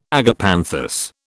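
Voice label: "agapanthus", British English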